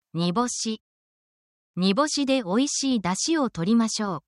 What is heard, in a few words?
essential japanese for ingredient